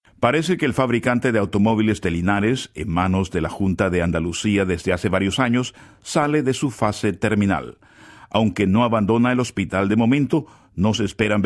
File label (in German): Kolumbien M